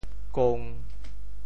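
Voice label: "kong1", Teochew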